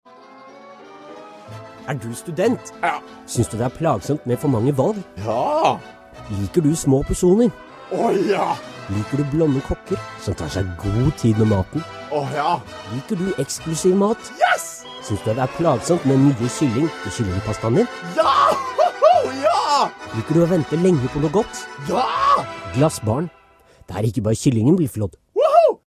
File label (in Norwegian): reklame; Radiotjenesten Glassbaren